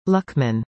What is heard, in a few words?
"lukman", english; indonesian boy names